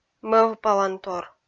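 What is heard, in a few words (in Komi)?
"мӧвпалантор", Komi, female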